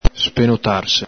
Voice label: "spenotarse", Veneto